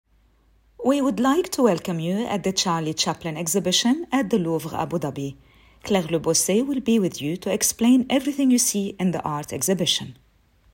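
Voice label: AR EN Accented, Arabic accented English; GoLocalise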